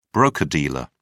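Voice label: "broker-dealer", British English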